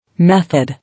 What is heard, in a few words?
"Method", English